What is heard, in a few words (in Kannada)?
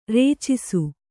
"ರಚ್ಚಿಸು", kannada